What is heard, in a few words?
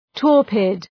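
"torpid", English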